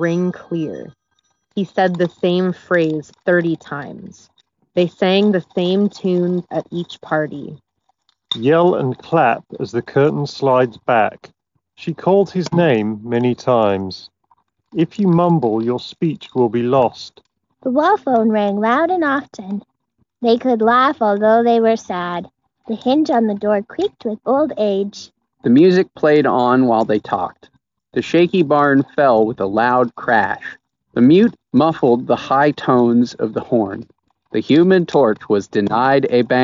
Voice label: Office microphone sample; GN Jabra Elite 7 Pro